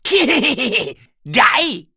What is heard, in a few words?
Vox Skill, en; Bernheim; kings raid; voices heroes en